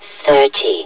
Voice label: "thirty", hev suit; Half Life Alyx

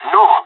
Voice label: disagree, pirate; Counter Strike Model Pack; CS:GO

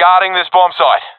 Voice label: guarding bombsite, professional epic; vo; Source Model Packs; Counter Strike Model Pack; CS2

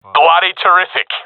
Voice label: round winning, professional epic

